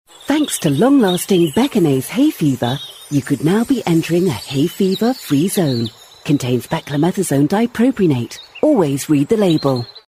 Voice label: Commercial; Beconase; Vocal Point